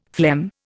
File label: "phlegm", Indian; Dictionary